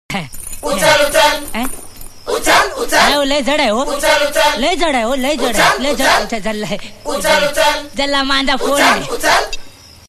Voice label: Uchal uchal funny marathi